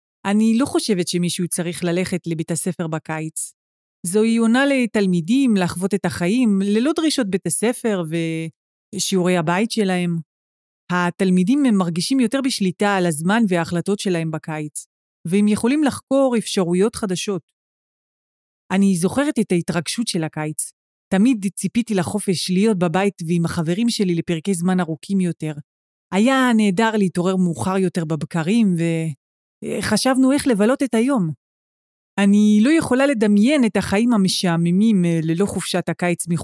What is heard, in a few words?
Hebrew response